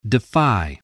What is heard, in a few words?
"defy", English